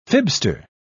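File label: "fibster", English, British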